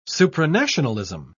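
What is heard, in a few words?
"supranationalism", British English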